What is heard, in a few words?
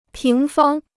"平方", Chinese